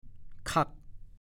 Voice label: "คั่ก", ภาษาโคราช

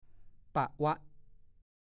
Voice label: "ปะวะ", ภาษาโคราช; ปลีกตัว ปลีกเวลา

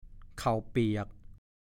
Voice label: "เข่าเปียก", ภาษาโคราช

